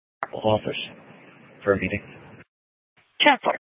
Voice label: Sheriff, Maricopa County P25; Maricopa County (P25) - Thompson Peak; Shrf Lakes